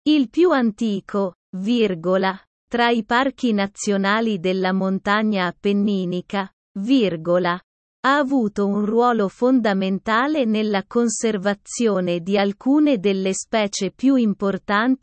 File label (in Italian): dettato; parco nazionale